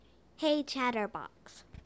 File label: hey chatterbox, en-us